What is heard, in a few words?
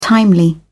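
"timely", British English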